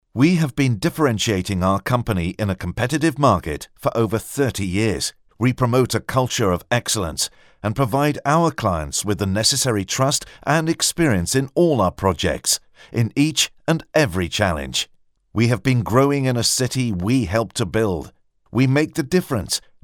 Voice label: english male